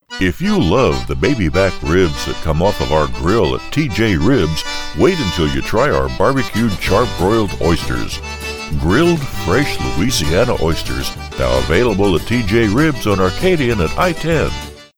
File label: Ribs Commercial